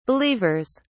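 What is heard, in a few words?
"believers", British English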